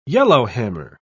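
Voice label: "yellowhammer", British English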